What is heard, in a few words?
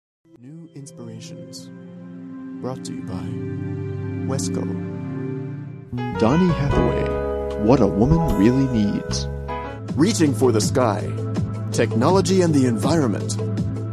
usa man